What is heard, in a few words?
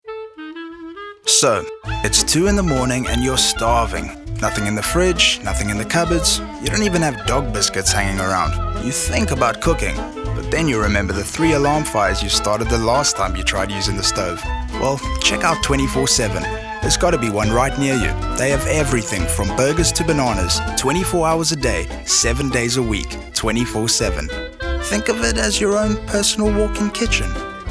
Medium Sell; 24 7